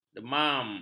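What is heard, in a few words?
"demam", Malay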